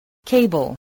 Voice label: "cable", spelling